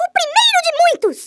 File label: FIRST BLOOD, Portuguese; Worms Armageddon